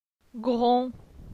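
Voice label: "Gron", French; phonetique; la syllabe on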